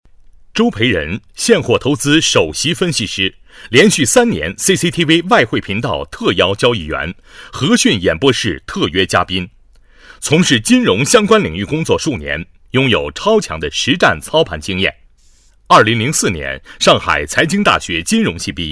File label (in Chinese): 男 专题